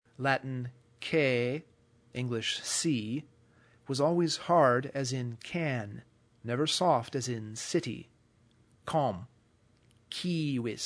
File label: Latin C English c, consonants